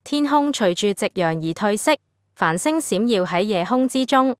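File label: Hong Kong Chinese; zh-HK; iOS Sounds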